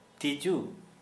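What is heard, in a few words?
Santali male insect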